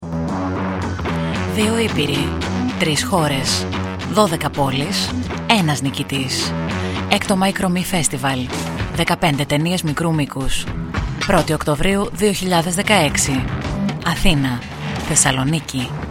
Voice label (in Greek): SPOT; MICRO M FESTIVAL ΚΕΝΤΡΙΚO; festival tainion mikrou mikous veroia